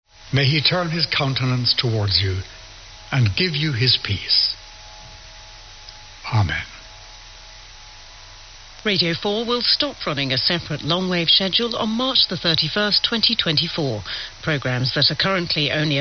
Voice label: BBC announcement; BBC longwave update